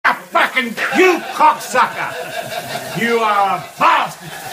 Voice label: scared dad